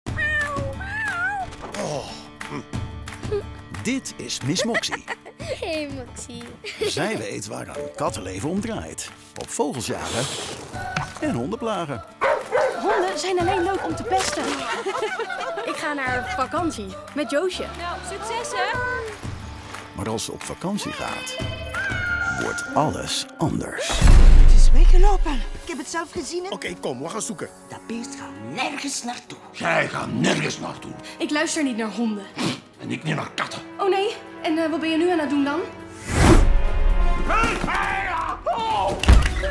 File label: NL trailer; Miss Moxy